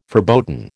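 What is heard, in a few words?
"verboten", American English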